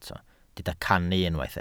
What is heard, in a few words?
"cannu", Welsh